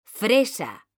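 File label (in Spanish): "fresa", Spanish; mekanta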